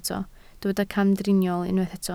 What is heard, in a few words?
"camdriniol", Welsh